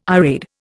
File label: "irade", Indian